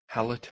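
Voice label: "halut", Proto-Indo-European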